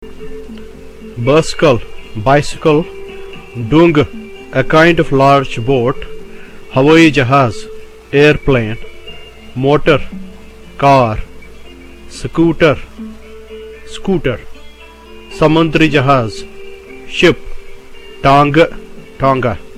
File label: vehicles, Spoken Kashmiri